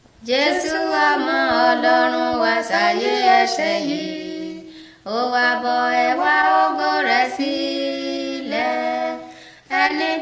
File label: words of life yoruba; yoruba bible